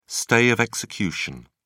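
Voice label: "stay of execution", British English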